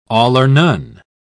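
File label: "all-or-none", English, British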